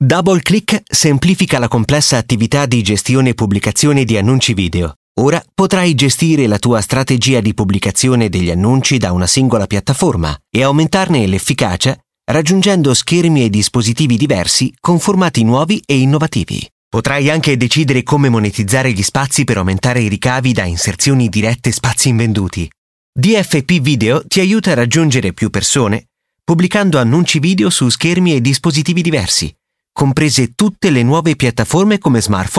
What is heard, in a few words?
italian voice over male narrator